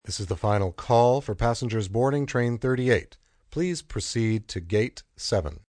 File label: Train Final Call, Train, subway, PA, announcements, male